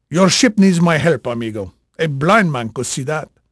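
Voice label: Spanish male, voice; Pirates Of The Caribbean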